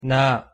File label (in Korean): "나", Korean